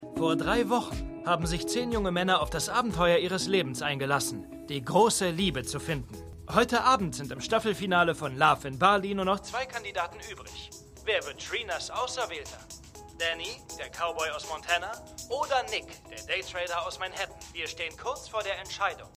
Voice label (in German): TV Sprecher; The Good Fight